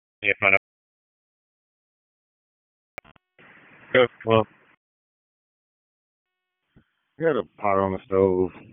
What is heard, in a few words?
FIRE OPS, p25; wake